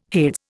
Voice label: "Hades", Indian

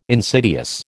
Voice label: "insidious", American

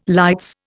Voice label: "lights", Indian

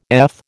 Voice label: "eth", American

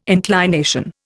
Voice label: "inclination", Indian

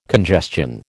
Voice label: "congestion", American

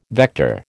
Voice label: "vector", American

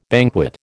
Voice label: "banquet", American

